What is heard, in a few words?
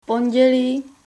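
"pondělí", Czech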